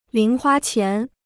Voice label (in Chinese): "零花钱", Chinese